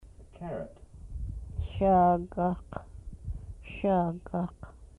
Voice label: "carrot", Twana